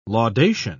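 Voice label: "laudation", British English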